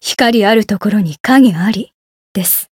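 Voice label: win, Japanese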